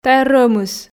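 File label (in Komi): тайӧ рӧмыс, Komi, female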